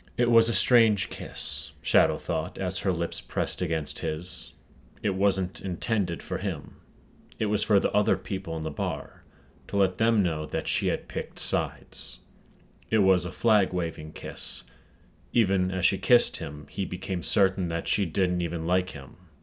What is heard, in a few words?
audiobook